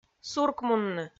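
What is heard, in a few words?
"суркмунны", Komi, female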